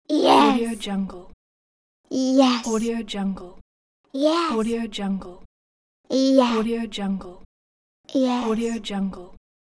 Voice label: children says yes; teldrassil